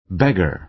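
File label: "beggar", American English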